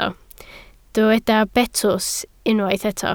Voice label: "betws", Welsh